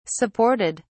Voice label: "supported", en uk